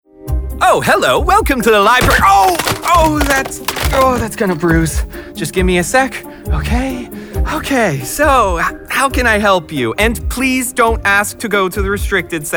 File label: men animation; Energetic, Youthful, Passionate, Fresh, Conversational, Authentic, Authoritative, and Confident.